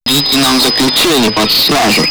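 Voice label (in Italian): "rimpiango" Italian word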